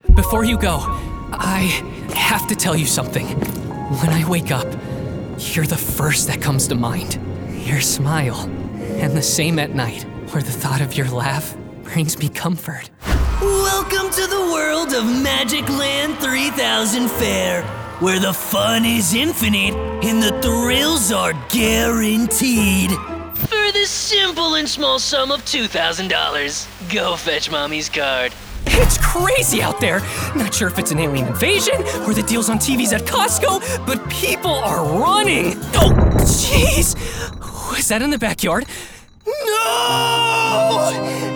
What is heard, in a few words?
Animation